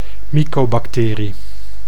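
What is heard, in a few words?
"mycobacterie", Dutch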